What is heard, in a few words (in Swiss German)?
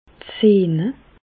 "sehne", Bas Rhin; medecine; tendons